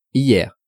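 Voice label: "hier", French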